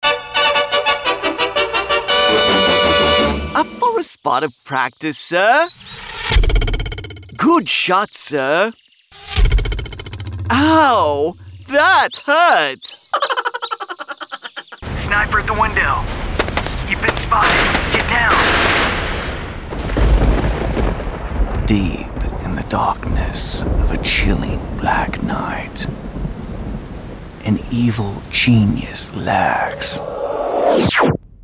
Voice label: Character Voice